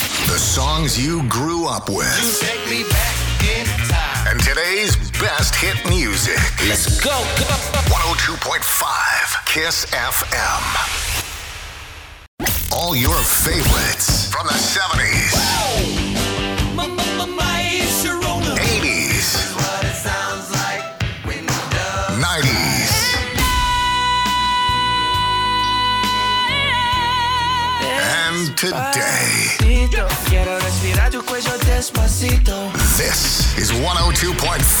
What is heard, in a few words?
Classic Hits Imaging